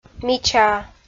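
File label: "мичаа" Komi, female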